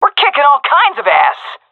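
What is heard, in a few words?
round winning, female; Counter Strike Model Pack; CS:GO; seal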